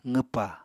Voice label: "qwpa", Apatani

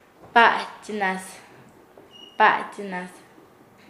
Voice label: "aparar", Nasa Yuwe; paez

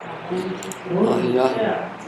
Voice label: "fire", Nukuoro

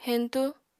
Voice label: "heñtú", apatani

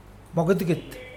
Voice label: "rough sea", Mokilese

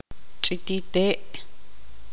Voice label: tzutujil

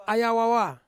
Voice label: "aya wawa", Ansus; bird of paradise

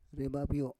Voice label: "rebabyo", Roon

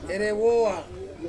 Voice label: "rewoa", to see, Ansus

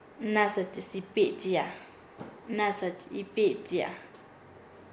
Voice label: "invitar a varias personas", Nasa Yuwe; paez

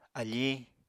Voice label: "afiñ", apatani

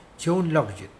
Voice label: fisherman, Mokilese

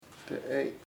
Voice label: upper el mas arriba, chamacoco

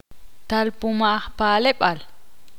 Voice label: ixil; contar una historia

